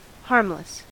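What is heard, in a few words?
"harmless", US English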